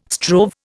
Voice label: "strove", Indian